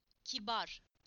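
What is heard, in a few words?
"polite", Turkish; PictureDictionary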